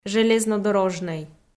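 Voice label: "железнодорожнӧй", Komi, female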